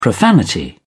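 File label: "profanity", English, British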